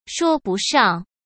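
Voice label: "说不上", Mandarin Chinese; chinese flashcards; hsk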